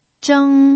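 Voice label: "zheng" Mandarin, first tone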